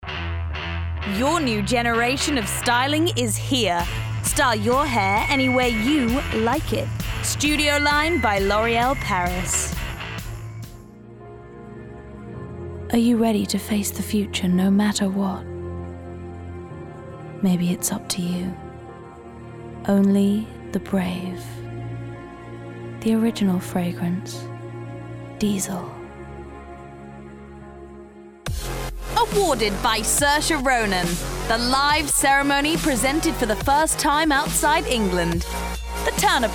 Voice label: commercial reel